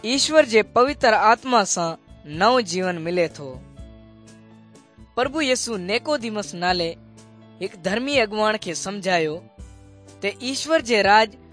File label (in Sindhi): good news sindhi dukslinu, drama